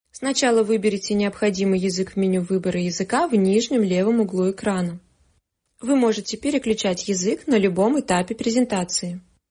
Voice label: 俄语女声

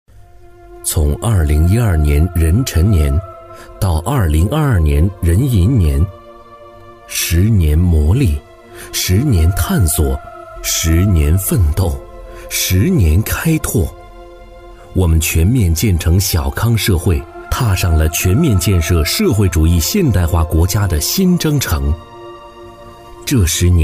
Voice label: 男 党政纪录片; 磁性稳重 大气浑厚; 领航

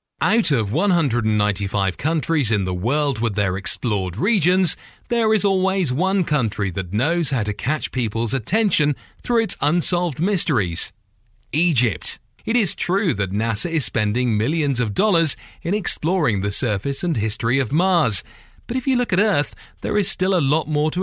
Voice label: 英式男声

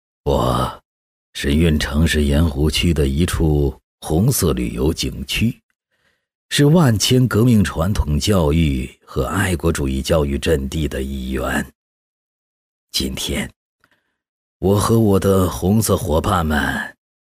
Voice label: 男 角色 老年人自述; 高端内涵 素人自然

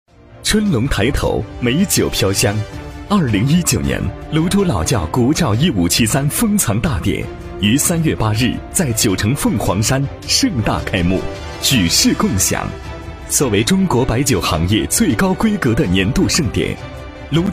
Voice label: 男 节目导视 新闻; 国窖会客厅节目导视

